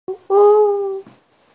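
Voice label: "service", hearing impaired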